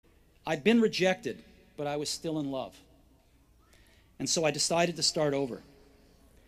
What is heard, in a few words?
Commencement Address; Steve Jobs; 2005; Stanford